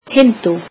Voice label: "Hindi", Icelandic